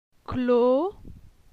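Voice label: "Clo", French; phonetique; la syllabe o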